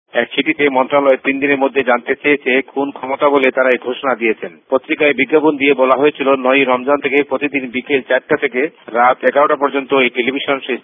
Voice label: bangla islamic tv